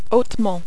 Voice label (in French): "hautement", French